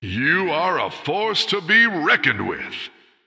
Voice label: Male Human General